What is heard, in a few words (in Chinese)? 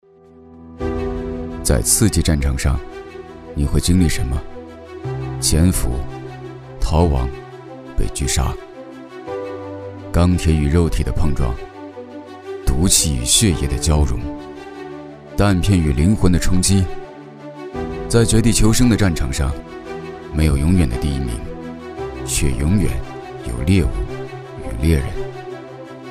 男 专题 多彩旁白 带干音